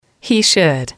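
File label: "he should", English, female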